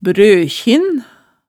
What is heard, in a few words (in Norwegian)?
"brøkjin", hemsedalsmaalet tale